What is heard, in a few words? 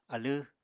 "alẃ", Apatani